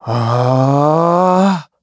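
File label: Vox Casting, English; kingsraid; voices heroes en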